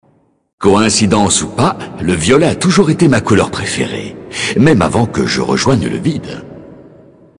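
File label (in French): Male Blague, voix françaises; Elfe du Vide; Couleur Violet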